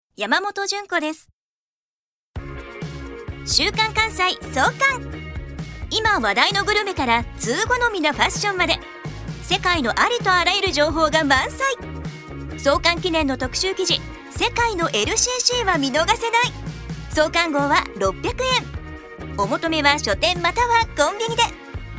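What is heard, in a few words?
commercial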